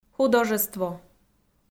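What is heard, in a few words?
"художество", Komi, female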